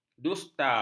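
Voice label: "dusta", Malay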